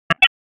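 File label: Police Northwest District Tac, radio; Raleigh; wake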